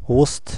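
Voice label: moroccan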